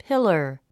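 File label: "pillar", English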